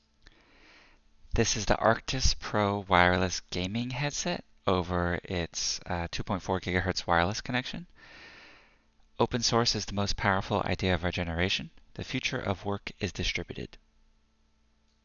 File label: arctis pro wireless ghz